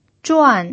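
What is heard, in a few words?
"zhuan4", Mandarin Chinese pinyin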